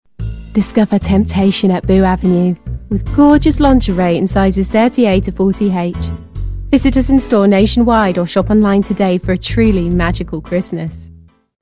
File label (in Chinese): 外籍英语女声 内衣广告